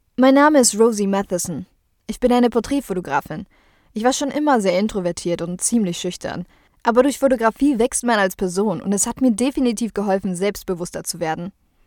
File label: german narration